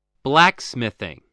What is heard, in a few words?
"blacksmithing", spelling